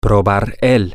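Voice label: to traste try it, Spanish, male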